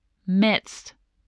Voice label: "midst", voice, spelling

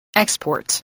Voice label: "export", voice

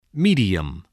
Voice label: "medium", voice

